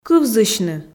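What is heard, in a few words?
"кывзысьны", Komi, female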